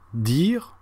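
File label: "dire", French; frans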